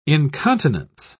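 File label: "incontinence", British English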